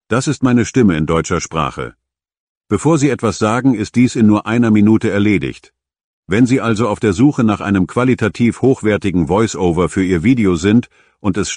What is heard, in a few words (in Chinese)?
德语男声